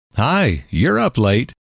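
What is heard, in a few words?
you Re Up Late, male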